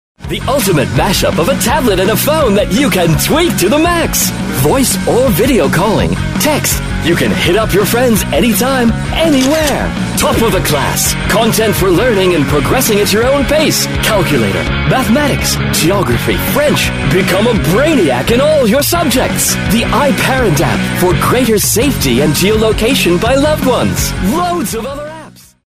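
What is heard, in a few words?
US male TV commercial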